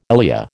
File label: "ILEA", American